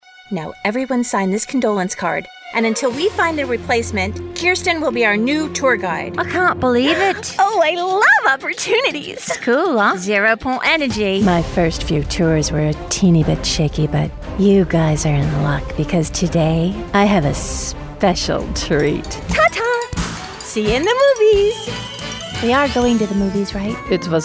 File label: Animation